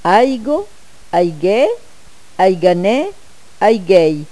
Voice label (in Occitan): "aiga aigueir", gasconha